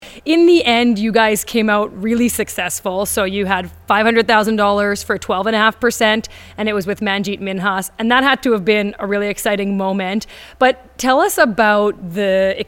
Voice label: background noise removal before

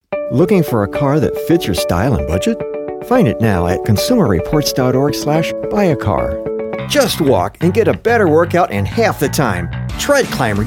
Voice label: commercial

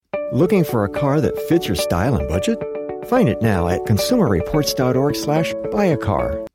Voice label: believable spokesperson

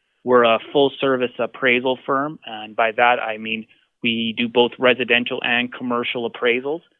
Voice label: hiss removal after

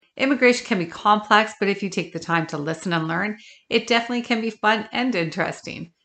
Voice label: echo removal before

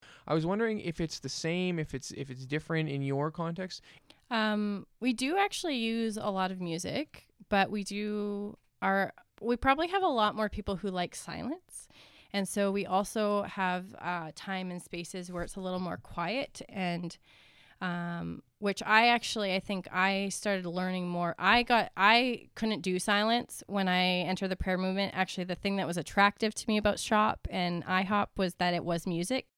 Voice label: dialogue cleanup before

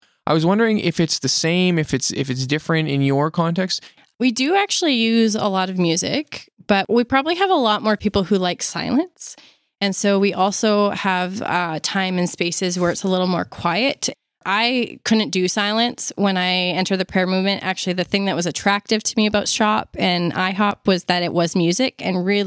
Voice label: dialogue cleanup after

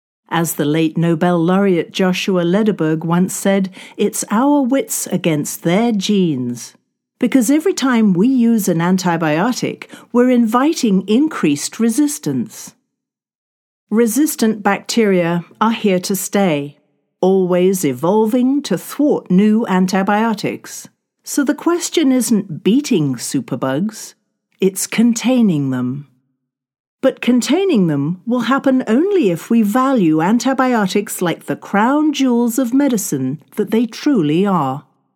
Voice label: documentary excerpt; superbugs

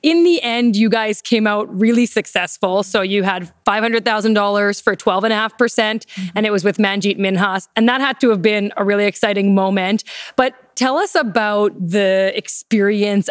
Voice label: background noise removal after

